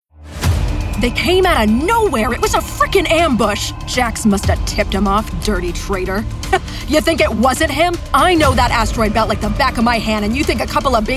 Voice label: voiceover audio books; New York